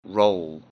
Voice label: "roll", English; Common Prepared Foods